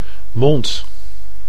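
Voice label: "mond", Dutch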